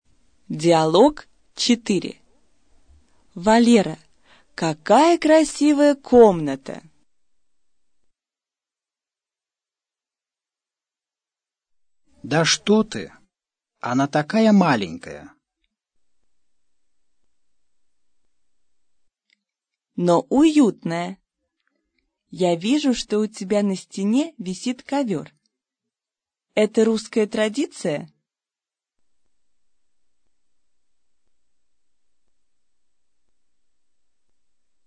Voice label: dialog, russian